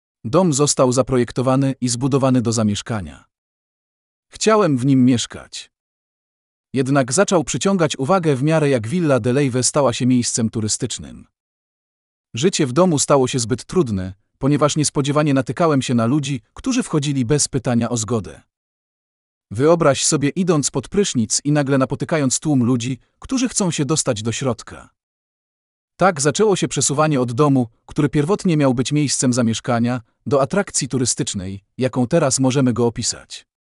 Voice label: Polish